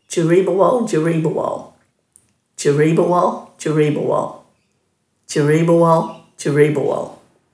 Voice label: "djiriibawal djiriibawal", Dhurga